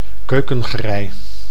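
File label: "keukengerei", Dutch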